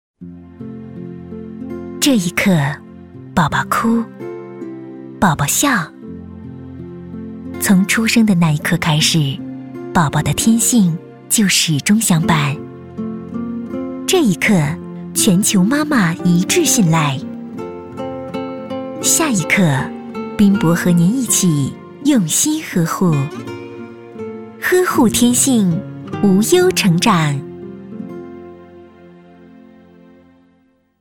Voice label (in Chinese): 广告 食品饮料 奶粉 沉稳, 女国